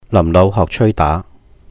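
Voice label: "làhm lóuh hohk chèui dá", Cantonese; 臨老學吹打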